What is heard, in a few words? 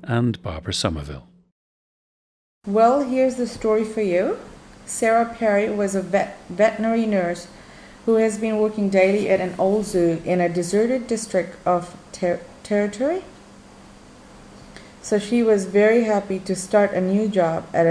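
fiji, International Dialects of English Archive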